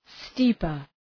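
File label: "steeper", English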